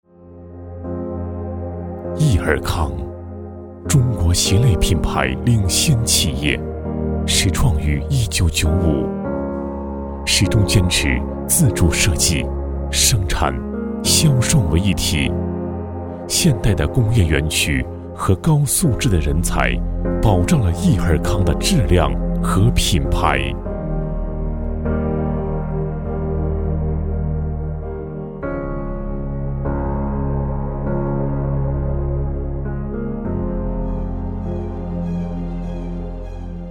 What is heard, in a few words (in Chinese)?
男 鞋业（浑厚 感情）; 意尔康